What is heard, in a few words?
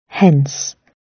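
"hence", British English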